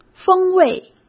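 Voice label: "风味", Mandarin Chinese; chinese-flashcards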